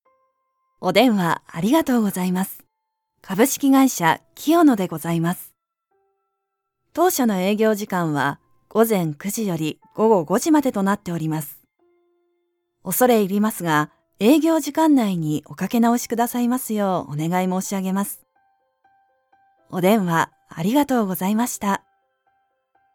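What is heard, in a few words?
Japanese, IVR; GoLocalise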